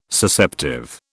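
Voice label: "Susceptive", British English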